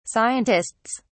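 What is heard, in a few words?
"scientists", British English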